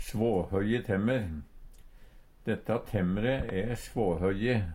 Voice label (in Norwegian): svåhøggje temmer, Numedalsmål; stokkane er hogge og barka mens det svår; Uttrykk